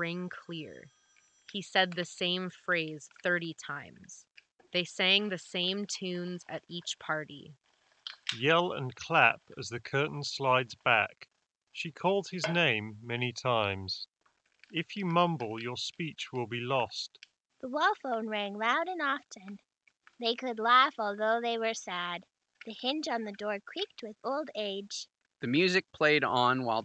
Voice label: HyperX Cloud Stinger Core Office microphone sample